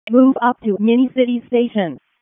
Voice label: EMS ALERT, p25; wake